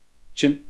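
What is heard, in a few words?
Voice Acting, Spanish, male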